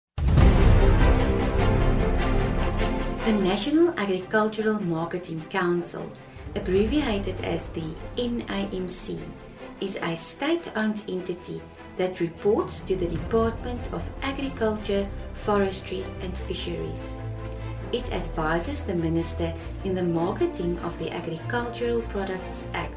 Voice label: English Listeriosis